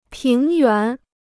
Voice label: "平原", Chinese